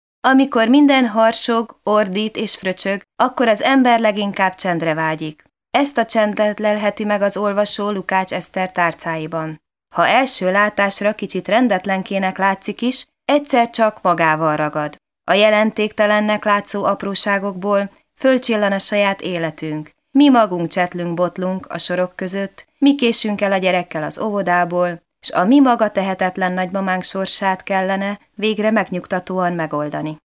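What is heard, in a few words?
Female, Hungarian, documentary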